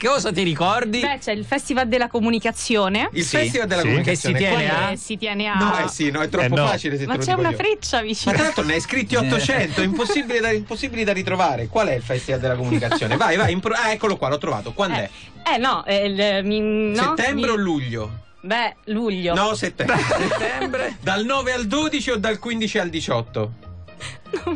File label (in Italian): Radio; Deejay